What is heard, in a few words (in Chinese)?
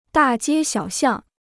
"大街小巷", Chinese